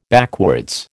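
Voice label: "backwards", American English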